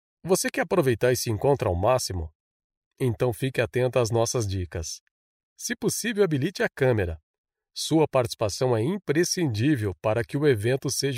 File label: Brazilian Portuguese Female Elearning